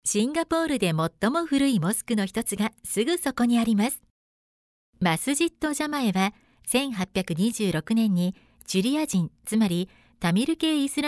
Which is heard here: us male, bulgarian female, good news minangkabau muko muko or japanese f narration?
japanese f narration